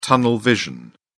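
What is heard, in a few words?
"tunnel vision", British English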